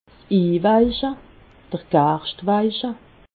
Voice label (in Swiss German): "inweiche", alsace